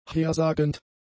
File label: "hersagend", German; adjectives